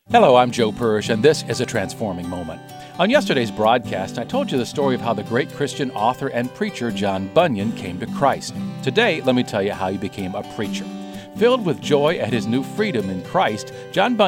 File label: Preaching; Transforming Moments Bunyans Preaching Call